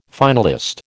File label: "finalist", American